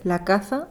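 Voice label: "la caza", Spanish; ‘die Jagd’; [laˈkaθa]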